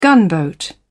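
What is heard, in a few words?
"gunboat", British English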